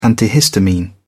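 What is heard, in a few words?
"antihistamine", British English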